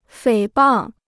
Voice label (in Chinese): "诽谤", Chinese